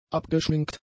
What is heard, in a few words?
"abgeschminkt", German; adjectives